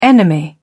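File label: "enemy", British English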